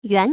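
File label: "Yuan", Chinese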